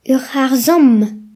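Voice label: "truck", Breton; gcompris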